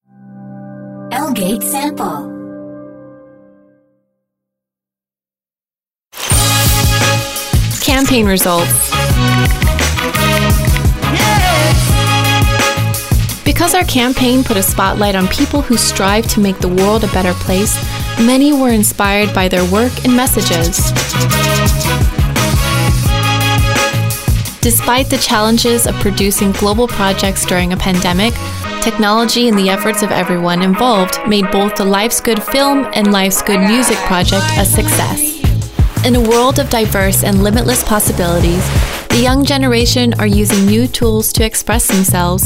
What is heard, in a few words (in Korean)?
영어 여 기본톤 나레이션; LG-CES